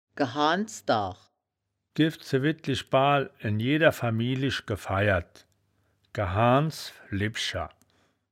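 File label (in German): "gehaans daach", wittlicher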